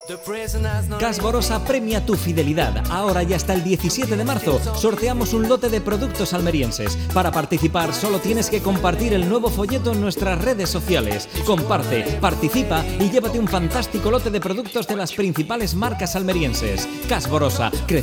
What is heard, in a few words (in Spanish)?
cuña radio sorteo folleto; ALVAREZ LUCHA SL; Genéricos 2021